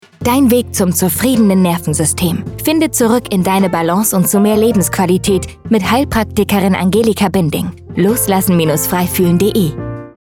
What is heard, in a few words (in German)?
Funkspot; Heilpraxis Binding